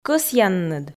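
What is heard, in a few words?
"кӧсъянныд", Komi, female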